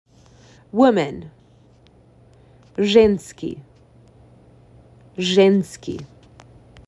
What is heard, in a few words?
"Women", macedonian; the talking macedonian phrasebook